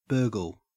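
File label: "burgle", Australian English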